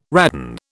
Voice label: "radon", British

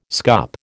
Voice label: "scup", American

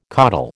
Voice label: "caudal", American

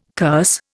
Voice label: "curse", Indian

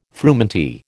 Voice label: "frumenty", American

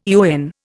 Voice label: "eon", Indian

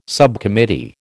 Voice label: "subcommittee", American

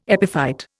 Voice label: "epiphyte", Indian

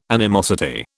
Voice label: "animosity", British

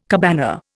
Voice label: "cabana", Indian

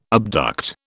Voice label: "abduct", British

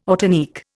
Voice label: "ortanique", Indian

